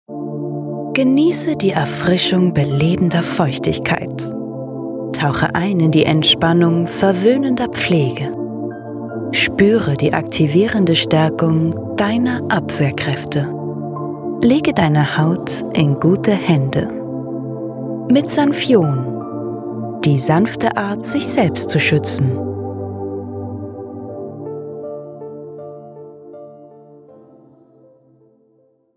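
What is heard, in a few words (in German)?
Werbung; Sanfion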